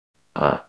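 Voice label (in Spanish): Voice Acting es F, Spanish, female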